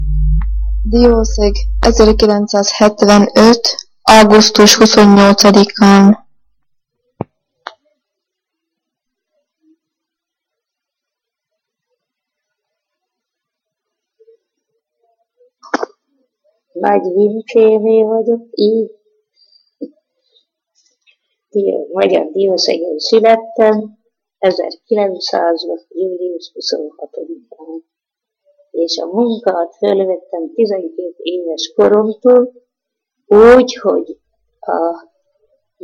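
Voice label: dialect collection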